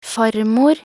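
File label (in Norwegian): "farmor", Norwegian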